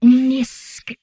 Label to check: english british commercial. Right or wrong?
Wrong